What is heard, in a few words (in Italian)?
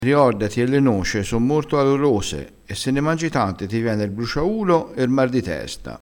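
"noce", dialettale crespinese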